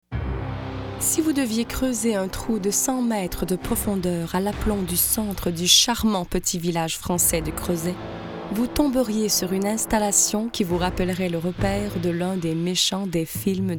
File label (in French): narration, voix femmes